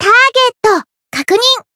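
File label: Voice encount Japanese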